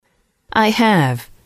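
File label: i have, female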